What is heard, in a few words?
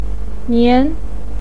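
"nian", Mandarin pinyin, tone 2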